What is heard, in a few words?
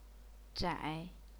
"zhai3", chinese pinyin; 窄